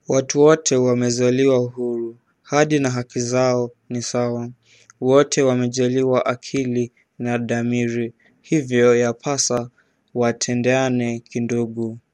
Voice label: Swahili